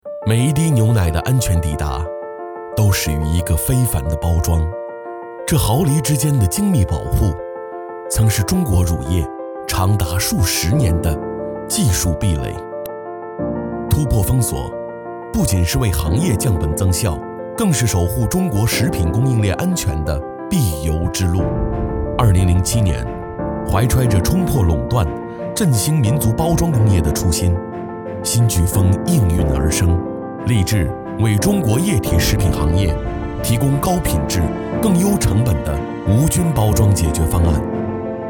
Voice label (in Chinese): 男 中文 宣传广告; 新巨丰